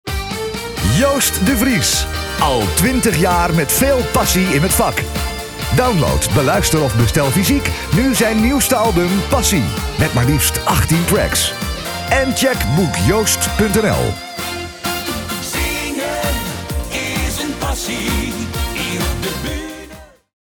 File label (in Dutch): radiospot